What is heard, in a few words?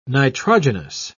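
"nitrogenous", British English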